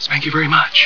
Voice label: "thank you"; ace ventura